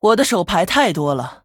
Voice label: Female Human ERROR HAND FULL, zh